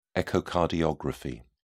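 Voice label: "echocardiography", British English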